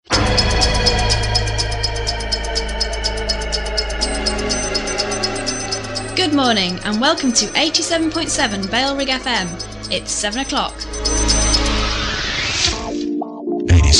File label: FM prog; bfm RSL 1994